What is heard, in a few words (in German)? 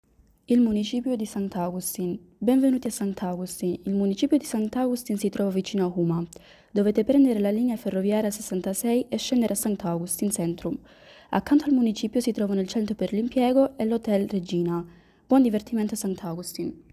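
italienisch; Rathaus Sankt Augustin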